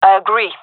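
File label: agree, female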